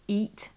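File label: "eat", British English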